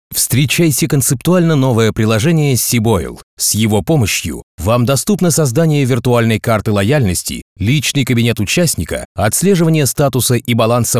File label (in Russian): po-delovomu; профессиональных дикторов для озвучивания видео